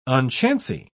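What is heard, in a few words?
"unchancy", British English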